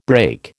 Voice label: "brake", American